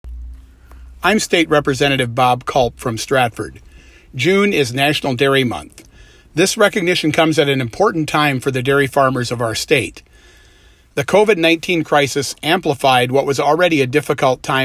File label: Radio; GOP; Kulp